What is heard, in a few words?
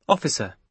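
"officer", British English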